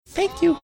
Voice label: cute thank you

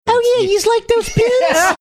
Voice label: saying oh yeah youz like those pins funny

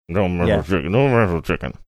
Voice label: muffled dont mess with chicken

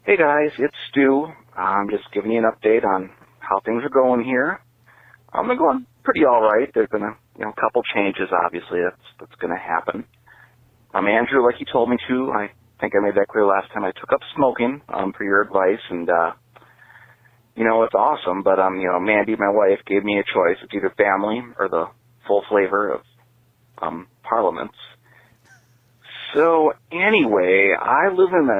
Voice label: voicemail message

